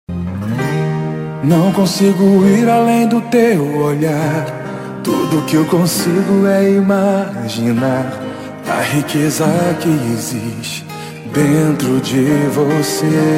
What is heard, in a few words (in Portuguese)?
irmã gospel, Aniversário de Irmã – Voz Masculina; Telemensagens para todas as ocasiões